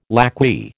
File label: "lacquey", American